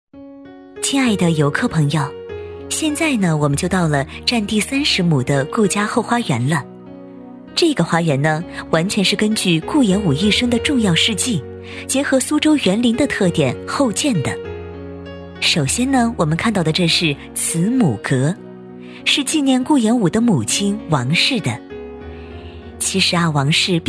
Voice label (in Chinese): 女 景区导游词 成熟温馨; 旅游风光景区配音